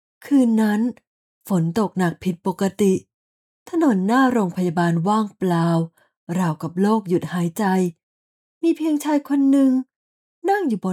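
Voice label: Audiobook Tragedy, Friendly and approachable, Calm and soothing, Clear and articulate Female; Audiobooks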